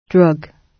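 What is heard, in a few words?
"drug", British English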